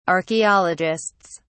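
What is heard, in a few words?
"archaeologists", British English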